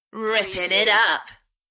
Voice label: UK female Rippin it up; beanstalk audio

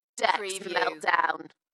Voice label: UK female Decks meltdown Dalekness; beanstalk audio

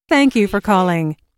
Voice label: US female phone prompt Thank you for calling; lynne publishing

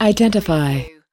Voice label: US female identify; lynne publishing

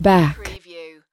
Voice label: US female back; lynne publishing

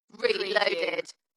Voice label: "Reloaded", UK female; Dalekness; beanstalk audio

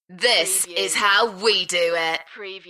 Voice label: UK female; beanstalk-audio

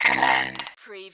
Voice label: Robot voice, "command"; lynne publishing

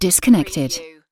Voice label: "disconnected", UK female; lynne publishing

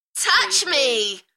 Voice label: UK female Touch me Enthusiastic; beanstalk audio

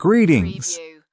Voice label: US male greetings; lynne publishing

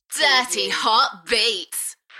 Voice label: UK female Dirty hot beats Enthusiastic; beanstalk audio

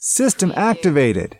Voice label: US male system activated; lynne publishing

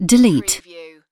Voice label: "delete", UK female; lynne publishing

